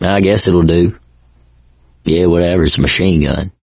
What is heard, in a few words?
take submachine gun, survivor voice; source engine; left 4 dead 2